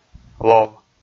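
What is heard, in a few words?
"лов", Komi, male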